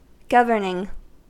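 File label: "governing", US English; pronunciations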